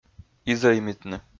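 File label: "изӧймитны", Komi, male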